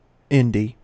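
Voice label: "indie", US English; pronunciations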